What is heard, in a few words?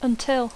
"until", English; fins (a); fins que